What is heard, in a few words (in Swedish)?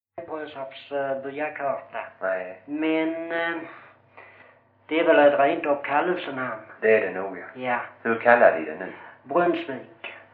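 Brösarp Skåne, dialekter; Folkminnen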